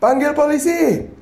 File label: police, Indonesian